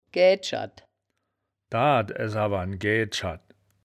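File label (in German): "gaeaed scherd", wittlicher; Gäädscherd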